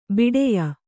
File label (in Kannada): "ಭಿಡಿಯ", Kannada